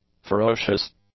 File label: "ferocious", english; adjectives